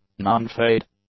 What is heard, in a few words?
"non-fade", english; adjectives